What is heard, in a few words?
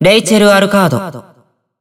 System Voice Character Select; BBCF; Hibiki Kohaku; Rachel Alucard